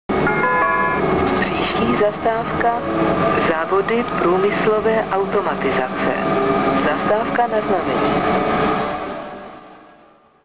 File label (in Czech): Hlaseni, trolejbusy; ZPA